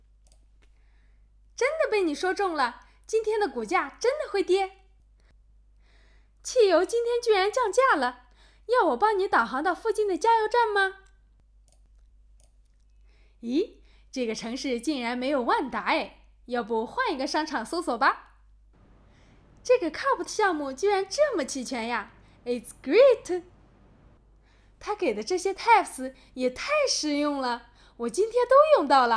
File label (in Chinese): 语音助手 惊讶